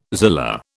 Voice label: "zillah", British